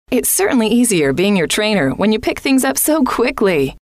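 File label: it is certainly easy being your trainer, female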